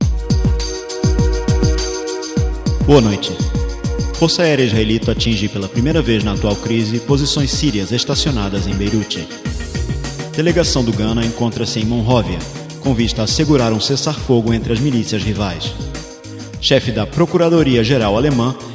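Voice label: Brazilian; Portuguese-Brazilian, Male, Home Studio, 30s-50s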